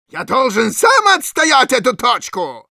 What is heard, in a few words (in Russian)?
help me defend, ru; Medic